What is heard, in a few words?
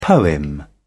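"poem", British English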